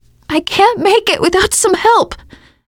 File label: going to die ask for heal, teen girl; player; survivor; voice